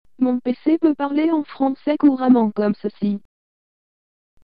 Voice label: french female, synthesizer